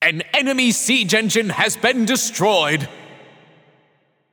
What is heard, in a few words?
Announcer Town Crier Match Siege Enemy Destroyed; paladins